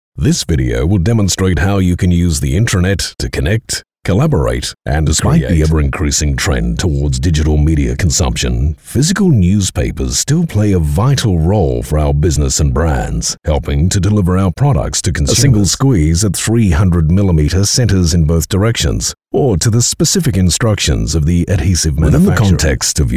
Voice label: Corporate